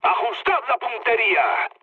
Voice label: player friendly fire, mood med, speech

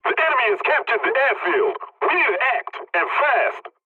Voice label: pilot capture ground enemy, english; War Thunder

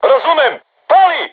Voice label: voice message art shoot, crew tanks serbian

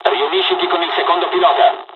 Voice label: mission fly, dialogs italian; War Thunder

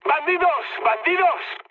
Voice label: enemy detected, mood med, speech; War Thunder

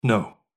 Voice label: No, Neutral; Civ5; Washington

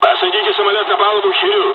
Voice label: second wave land, dialogs russian; War Thunder

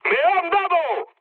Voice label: aircraft destroyed mood med; War Thunder

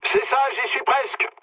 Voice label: near waypoint mood low, speech fr; War Thunder

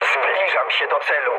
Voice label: near waypoint mood high, speech pol; War Thunder

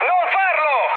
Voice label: bomb failure mood high, speech it; War Thunder

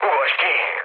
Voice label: bailout, speech hun; War Thunder

